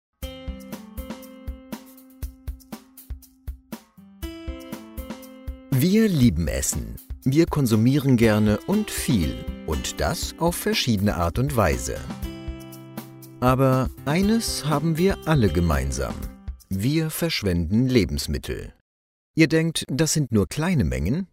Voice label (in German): doku voiceover, Lebensmittelverschwendung Auszug